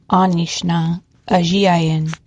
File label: how are you, ojibwe; Aaniish naa ezhiyaayin?